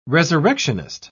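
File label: "resurrectionist", English, British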